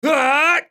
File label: spellcast effort; Jayce